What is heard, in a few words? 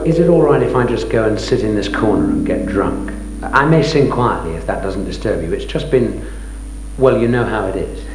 drunk; VOZES